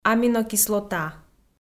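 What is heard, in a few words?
"аминокислота", Komi-Zyrian, female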